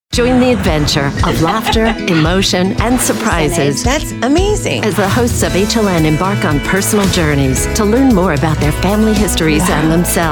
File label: promo female